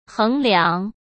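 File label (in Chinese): "衡量", Mandarin; chinese flashcards; hsk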